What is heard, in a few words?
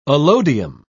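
"allodium", British English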